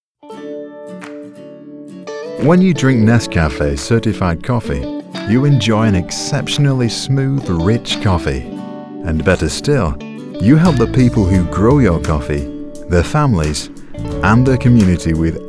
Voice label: Coffee Commercial